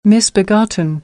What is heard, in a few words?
"misbegotten", American English; ɑː - ɒ